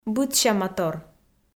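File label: "быдсяматор", Komi, female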